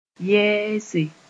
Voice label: "yɛɛse", Mooré